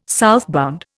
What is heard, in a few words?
"southbound", Indian